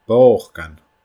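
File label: "bòcan", Scottish Gaelic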